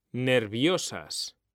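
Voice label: "nerviosas", Spanish; mekanta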